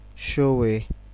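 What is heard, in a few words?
"show way", Nigerian Pidgin, male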